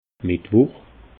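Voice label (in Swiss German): "mittwuch", Alsatian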